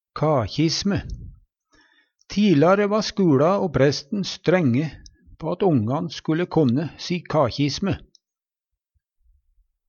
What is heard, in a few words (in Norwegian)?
ka kji sme, Numedalsmål